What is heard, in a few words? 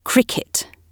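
"cricket", British English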